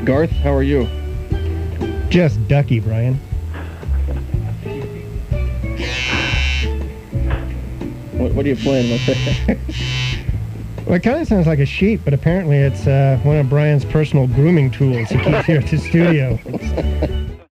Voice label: scottish